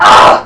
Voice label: fem silly; lmctf